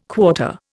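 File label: "quarter", Indian English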